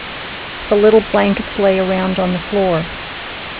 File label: speech noisy 8k